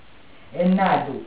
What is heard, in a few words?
"he natu", lingua